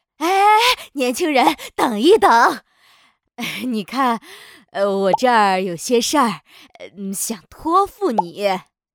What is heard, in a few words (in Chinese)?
角色, 中年势利大婶儿